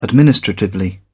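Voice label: "administratively", British English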